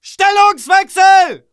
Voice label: ger change position, german